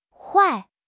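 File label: "huai4", Chinese; HSK; HSK3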